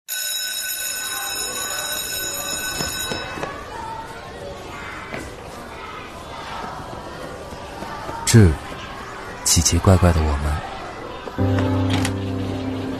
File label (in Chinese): 男 微电影 低沉讲述; 金狮奖; 质感磁性